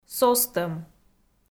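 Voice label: "состӧм", Komi, female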